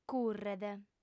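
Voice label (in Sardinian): "curret", female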